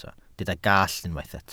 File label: "gallt", welsh